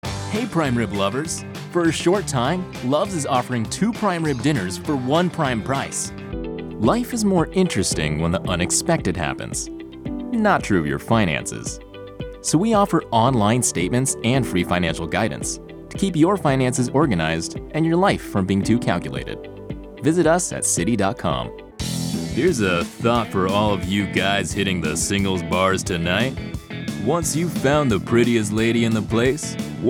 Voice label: COMMERCIAL

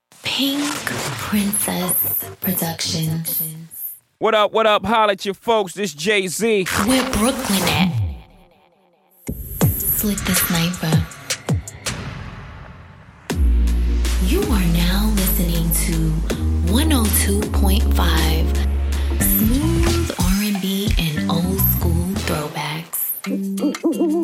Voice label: Angelic Voice Voiceover

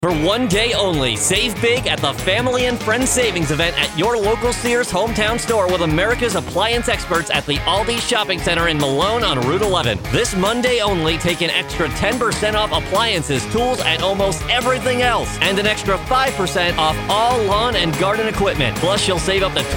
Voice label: spot; sears

